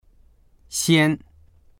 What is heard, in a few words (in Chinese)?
"xian1", voices; 先; 先に、まず